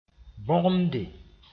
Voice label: "bõgemde", moore

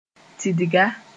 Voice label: "tidga", Moore; fish sp

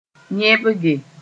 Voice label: "yẽbge", Mooré

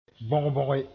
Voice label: "bõg-bõge", moore

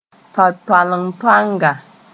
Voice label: "toantoalentoanga", moore

